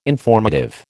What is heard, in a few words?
"informative", American